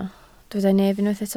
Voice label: "nef", Welsh

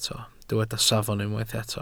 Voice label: "safon", Welsh

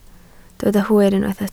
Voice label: "hwyr" Welsh

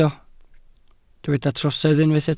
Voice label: "trosedd", Welsh

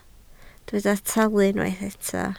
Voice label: "talu" Welsh

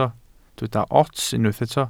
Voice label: "ots", Welsh

